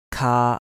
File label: "kaa1", Cantonese